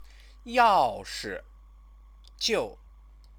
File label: "yaoshijiu", Chinese; 要 是 …就 …; if…then…; conjunction; Chinese lessons